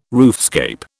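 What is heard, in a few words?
"roofscape", British English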